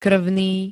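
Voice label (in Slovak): "krvný", Slovak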